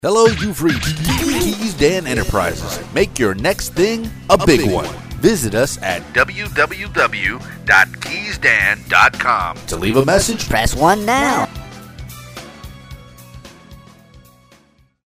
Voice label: Phone Message; KeysDAN Enterprises